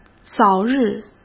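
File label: "早日", Mandarin Chinese; hsk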